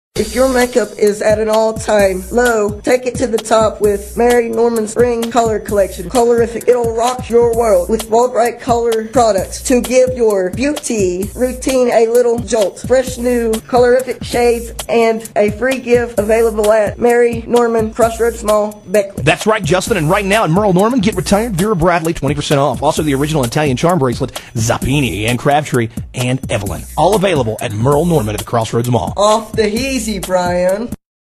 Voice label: radio commercial